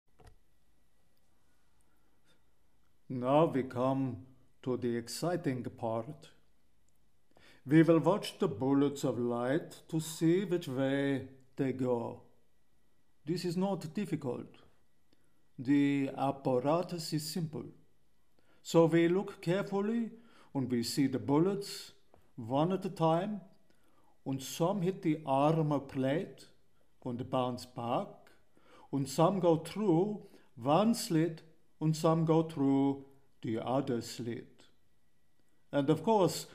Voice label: Accent Russian; voice